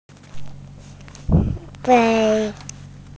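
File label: "fei1", Mandarin Chinese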